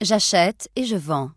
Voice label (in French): j'achete et je vend, human female; PhoenixCraft-1.16.5; PhoenixNPCPack; minecraft